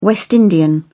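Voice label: "west indian", British English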